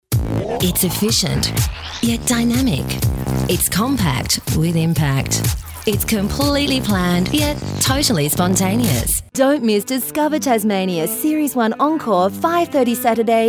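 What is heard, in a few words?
English Australian, female, voice over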